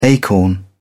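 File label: "acorn", British English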